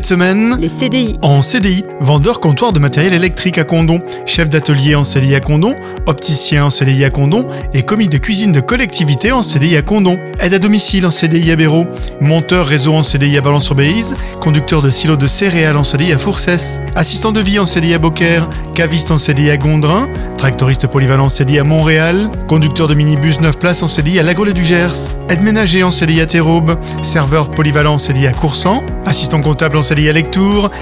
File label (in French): PUB FRANCE TRAVAIL CDI